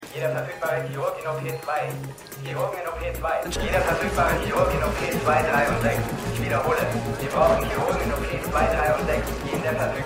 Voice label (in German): Durchsage; New Amsterdam